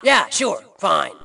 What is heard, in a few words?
Team Anytime, Female; T2 Female 04